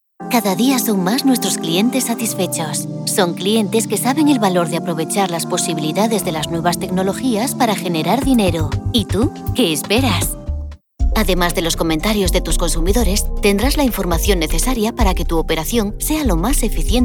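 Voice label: Spanish, female, corporate; voice-over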